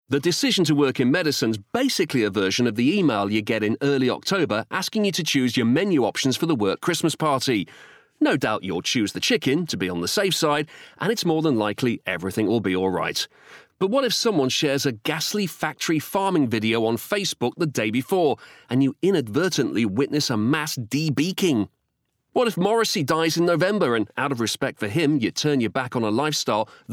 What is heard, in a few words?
Bookread; This Is Going To Hurt